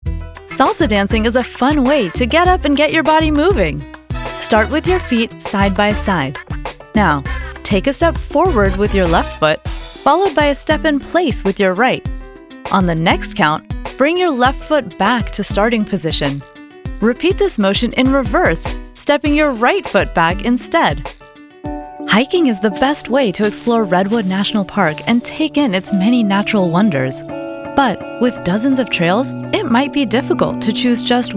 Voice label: Narration